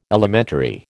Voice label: "elementary", American